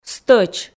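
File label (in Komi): "стӧч", Komi, female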